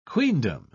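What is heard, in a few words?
"queendom", British English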